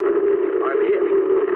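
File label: aircraft destroyed mood low, speech English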